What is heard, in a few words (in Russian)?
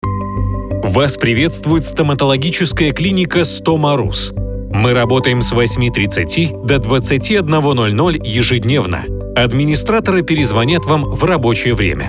пример автоответчика